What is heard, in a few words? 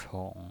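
"chong", Mandarin Chinese pinyin voice, third tone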